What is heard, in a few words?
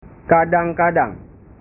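"kadang kadang", Indonesian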